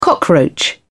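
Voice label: "cockroach", English, British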